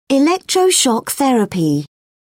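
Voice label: "electroshock therapy", British English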